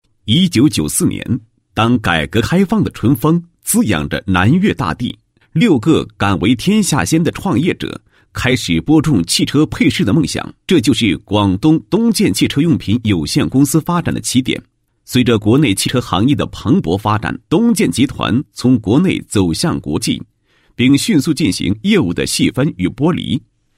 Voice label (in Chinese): 男27-企业, 企业文化再造; 东鼎